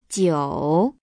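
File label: "jiu", Chinese; cuu 9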